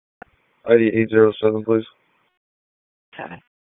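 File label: Police Southwest District Disp, radio; Raleigh; p25; wake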